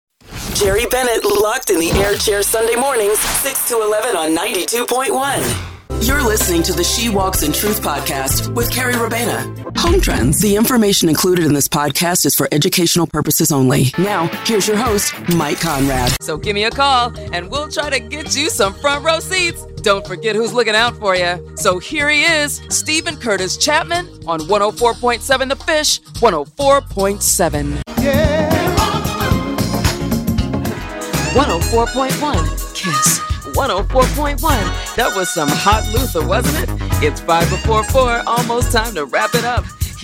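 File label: Radio Imaging, female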